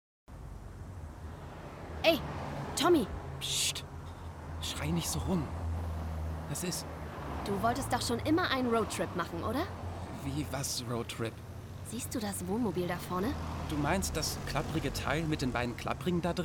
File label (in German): Hörspiel; Super jenny